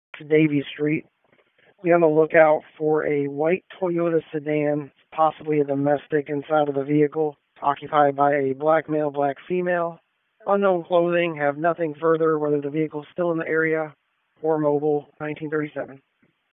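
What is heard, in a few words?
Police Downtown District Dispatch, p25; Raleigh Police Downtown District Disp; wake p25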